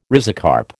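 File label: "rhizocarp", American English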